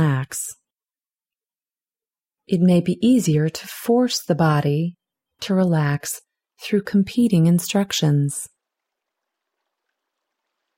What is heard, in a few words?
Relaxation Exercise Progressive Relaxation